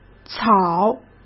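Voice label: "草", Mandarin Chinese; chinese flashcards; hsk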